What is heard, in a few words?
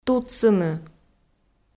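"тутсыны", Komi, female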